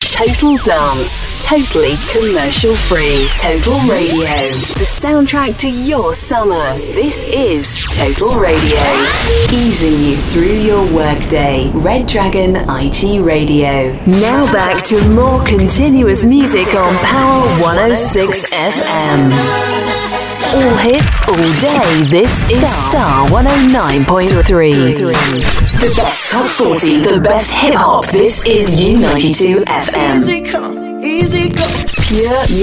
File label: Station Imaging